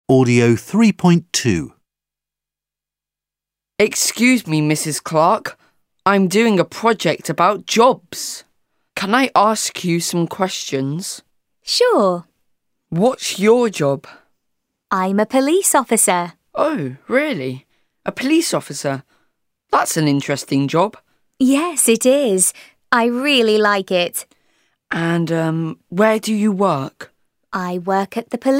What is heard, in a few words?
English Class A1 Plus